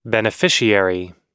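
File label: "beneficiary", US English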